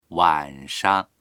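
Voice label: "wan3shang", Mandarin Chinese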